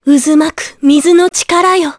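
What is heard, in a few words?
Vox Skill Japanese; Laias; kingsraid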